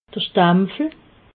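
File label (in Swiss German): "stampfel", Alsatian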